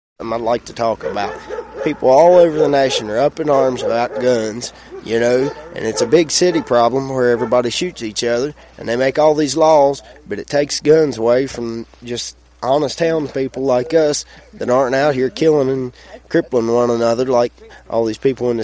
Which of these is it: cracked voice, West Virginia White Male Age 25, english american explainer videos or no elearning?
West Virginia White Male Age 25